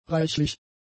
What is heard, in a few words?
"reichlich", German; adjectives